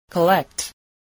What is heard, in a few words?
"collect", voice